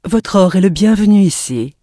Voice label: bloodelf, male; PhoenixCraft-1.16.5; PhoenixNPCPack; minecraft